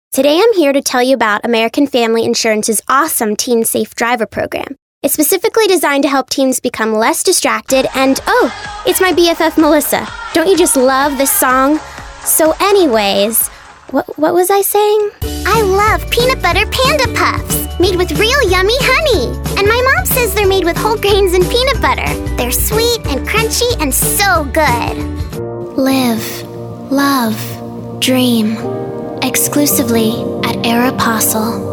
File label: kids commercial